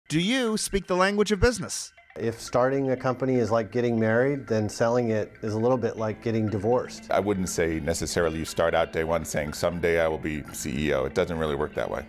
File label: Radio promo; Radio Entrepreneurs